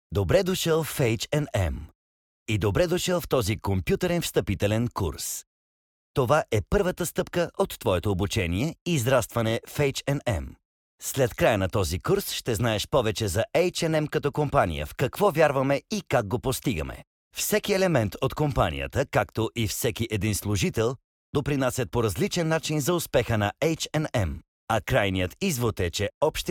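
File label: bulgarian male e-learning; voice demos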